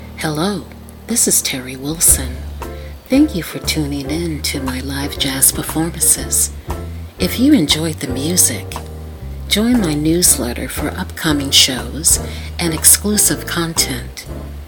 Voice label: voiceover